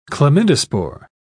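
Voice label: "chlamydospore", English, British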